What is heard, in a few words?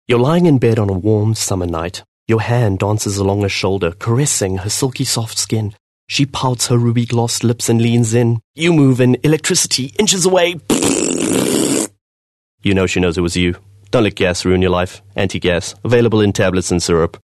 English Softsell